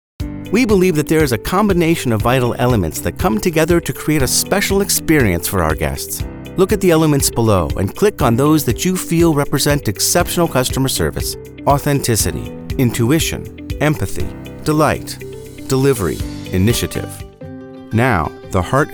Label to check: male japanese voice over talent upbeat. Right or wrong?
Wrong